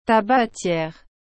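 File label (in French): "tabatière", French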